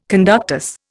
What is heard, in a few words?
"conductus", Indian